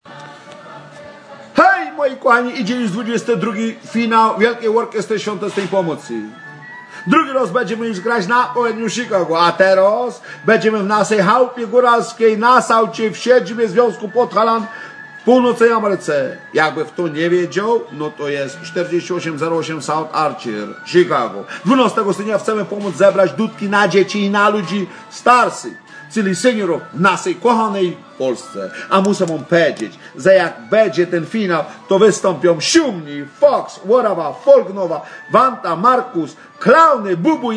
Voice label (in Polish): Reklama